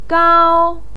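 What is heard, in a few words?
"gao", Mandarin pinyin, tone 1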